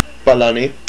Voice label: "French", hawaiian